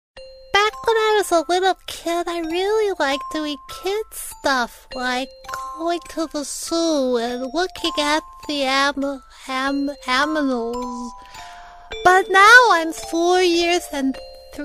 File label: Character, USA F; narrator